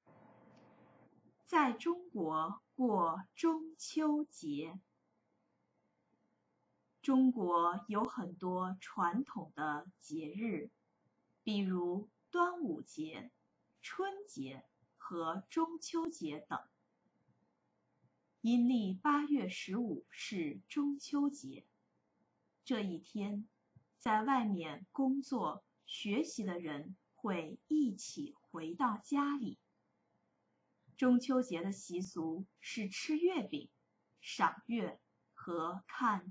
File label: chinese reading; Spending Mid-Autumn Festival in China – 在中国过中秋节; Learn Chinese in Chinatown